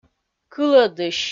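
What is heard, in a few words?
"кылӧдысь", Komi-Zyrian, female; kpv audio dict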